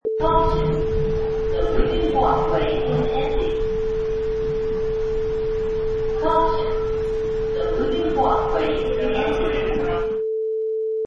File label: Moving Walkway Voice